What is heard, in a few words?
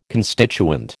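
"constituent", American English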